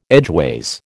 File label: "edgeways", American